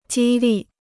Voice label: "激励", Chinese